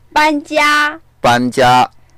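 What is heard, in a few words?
"ban1jia1", Mandarin Chinese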